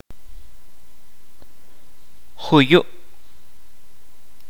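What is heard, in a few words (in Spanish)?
montaña, kaqchikel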